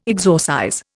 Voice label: "exorcize", Indian